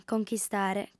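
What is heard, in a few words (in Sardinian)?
"conchistare", female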